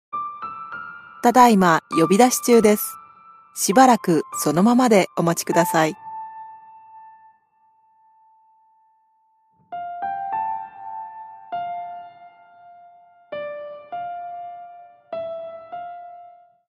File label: jpn